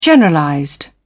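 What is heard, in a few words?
"generalized", British English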